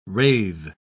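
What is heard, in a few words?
"rathe", British English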